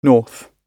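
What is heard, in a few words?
"north", British English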